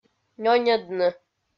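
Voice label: "нёньӧдны", Komi, female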